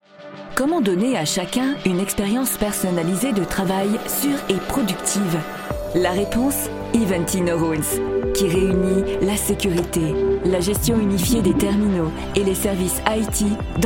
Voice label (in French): VO Corporate; Ivanti services